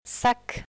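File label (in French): "sac", French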